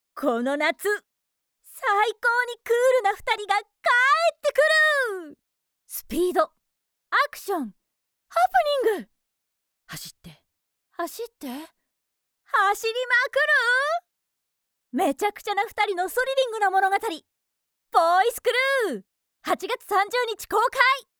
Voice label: female